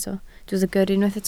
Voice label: "gyrru", Welsh